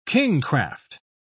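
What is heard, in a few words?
"kingcraft", British English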